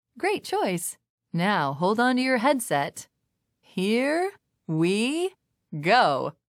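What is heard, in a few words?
loading zone, voiceovers, Canadian English; retaildemo; Windows; Mixed Reality Learning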